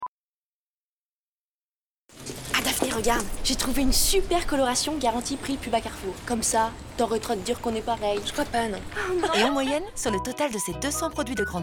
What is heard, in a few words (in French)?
TV souriante rapide; Carrefour Compare